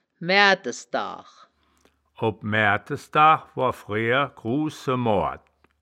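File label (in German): "maeaerdes daach", Wittlicher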